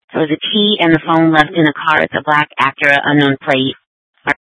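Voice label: Police Department North Dispatch, scanner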